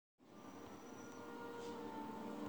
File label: voice, recitation